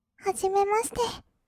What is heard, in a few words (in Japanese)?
はじめまして, kawaii voice; ASMR; 挨拶; 1200 kawaii voice!!